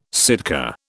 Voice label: "Sitka", British